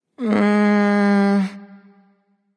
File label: suspicious; smite; voicepack; mercury